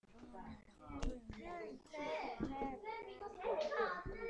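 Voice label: "ding", spoken word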